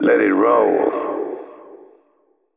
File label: techno male 140; Vocals